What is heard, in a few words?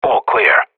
radio bot clear, professional; player vo